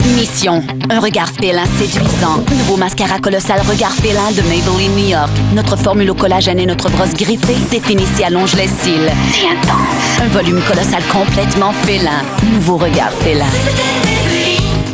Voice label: french voice over female commercial; fransizca seslendirme

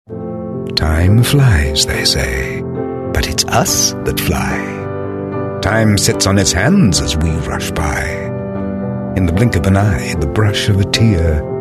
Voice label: english british voice over male

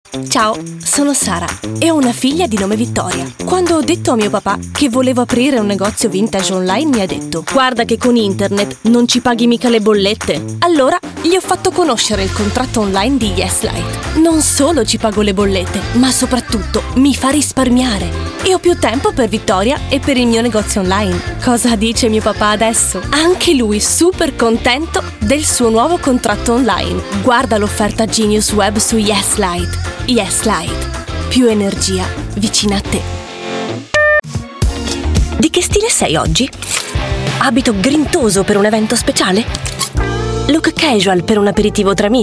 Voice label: italian voice over female commercial; İtalyanca Seslendirme

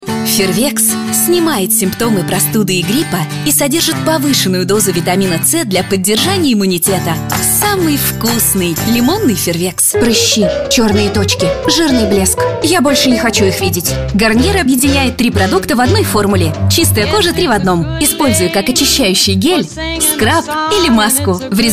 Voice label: russian voice over female commercial; Russian Dubbing; BiberSA Production

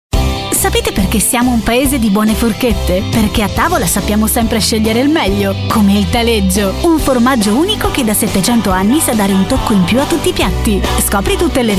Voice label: italian voice over female commercial; İtalyanca Seslendirme; İtalyanca Dublaj; BiberSA Prodüksiyon

